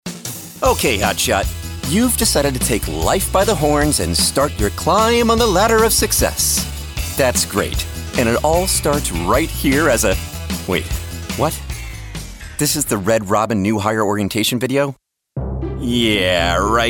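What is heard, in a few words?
American English elearning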